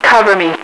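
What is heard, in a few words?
"cover", female radio voice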